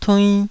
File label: "tuinn2", Taiwanese